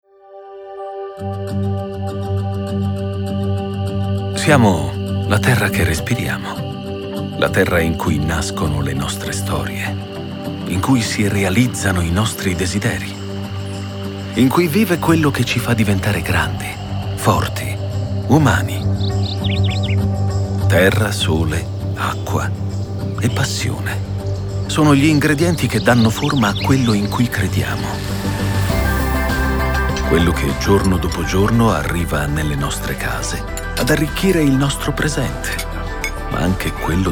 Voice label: Warm Confident